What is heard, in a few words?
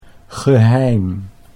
"geheim", Dutch